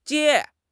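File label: "jie1", Chinese; HSK3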